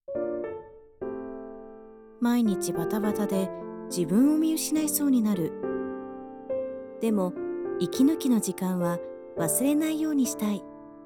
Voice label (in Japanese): CM, 女性ナレーター